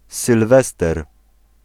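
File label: "Sylwester", Polish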